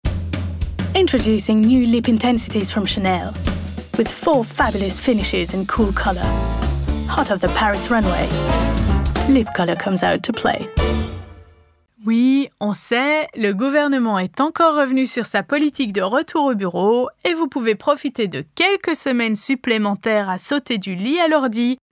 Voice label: EN FR Mixed, Artiste voix-off bilingue français-anglais; Artiste voix-off non-binaire